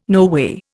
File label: "noway", Indian